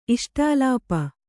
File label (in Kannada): "ಇಷ್ಟಾಲಾಪ", Kannada